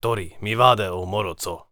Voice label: warrior conversation emotion neutral